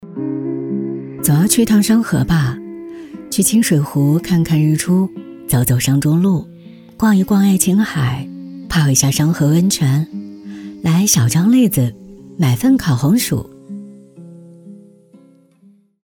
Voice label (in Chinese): 文案配音